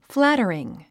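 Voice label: "flattering", English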